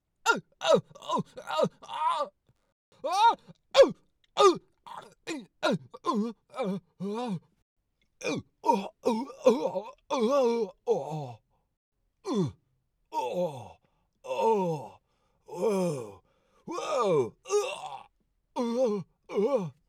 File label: Wipeout on motorbike Cartoonish and Dude Bro Voice; Character Voice Demos